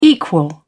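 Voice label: "equal", British English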